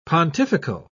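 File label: "pontifical", British English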